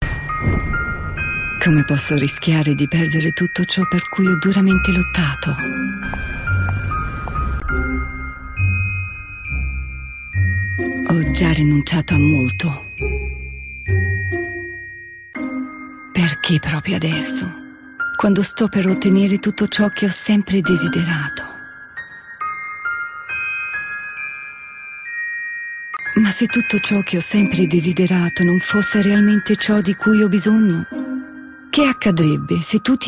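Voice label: Italian, Werbung Sanft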